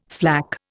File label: "flak", Indian